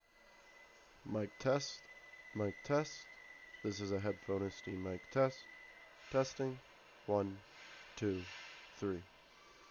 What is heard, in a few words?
Noisy Environment; Void Elite